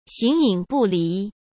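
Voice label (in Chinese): "形影不离", Mandarin Chinese; hsk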